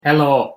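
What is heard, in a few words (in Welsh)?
"helo", Welsh pronunciation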